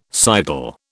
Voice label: "sidle", British